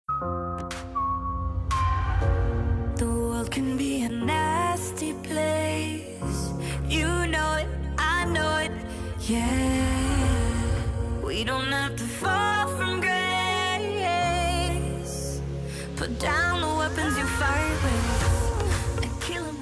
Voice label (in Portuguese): ANIV. IRMAO, telemensagem aniversario irmao